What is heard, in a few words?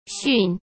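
"驯", Mandarin Chinese; chinese flashcards; hsk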